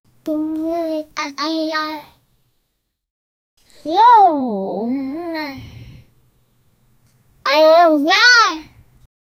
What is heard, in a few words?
teenage girl and teenage